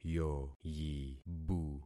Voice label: "yoyibu", concat syllables; Affixing experiment

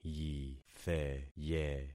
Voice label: "yitheye", concat syllables; Affixing experiment

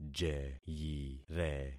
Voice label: "jeyire", concat syllables; Affixing experiment

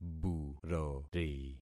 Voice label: "burori", concat syllables; Affixing experiment

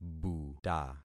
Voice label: "buta", concat syllables; Affixing experiment

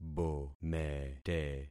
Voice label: "bomete", concat syllables; Affixing experiment

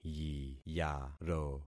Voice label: "yiyaro", concat syllables; Affixing experiment

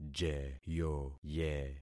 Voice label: "jeyoye", concat syllables; Affixing experiment

